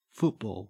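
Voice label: "football" Australian English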